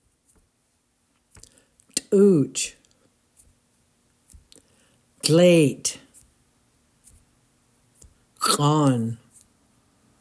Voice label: Colors, Tlingit